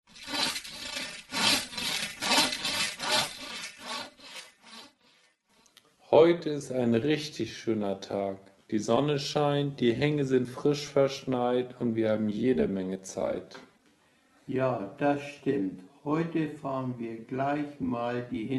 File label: Deutsch slow